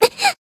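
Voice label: Voice damaged Japanese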